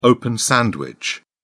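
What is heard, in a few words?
"open sandwich", British English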